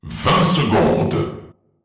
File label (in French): 2 sec, french; csgo; bombtimer